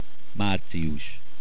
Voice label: March, hungarian

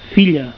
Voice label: daughter, Catalan

